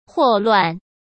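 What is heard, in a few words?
"霍乱", Mandarin Chinese; chinese-flashcards; hsk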